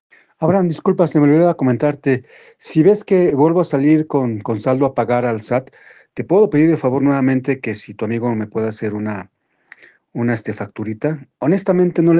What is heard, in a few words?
whatsapp ptt